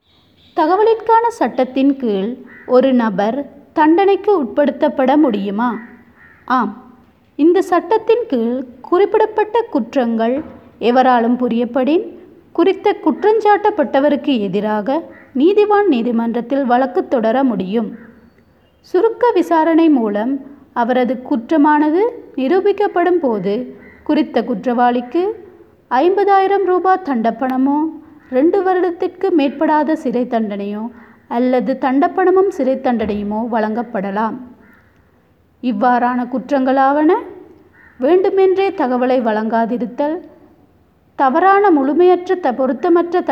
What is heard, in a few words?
Tamil